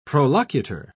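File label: "prolocutor", British English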